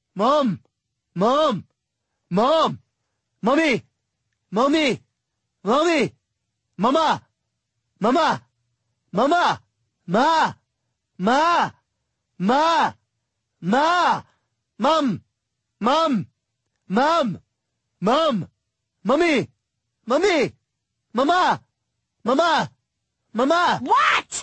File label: boy calling mom ringtone